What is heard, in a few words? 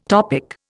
"topic", Indian